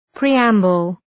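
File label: "preamble", English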